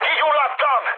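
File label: Hungarian on fire mood high, speech; War Thunder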